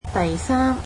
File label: "third", Cantonese